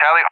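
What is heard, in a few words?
"tally", English, USA; DCS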